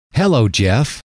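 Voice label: hello, male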